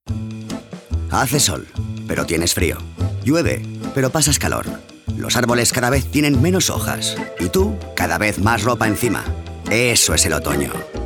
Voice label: male spanish wear comercial; ideasonora